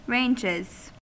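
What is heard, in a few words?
"ranges", US English; pronunciations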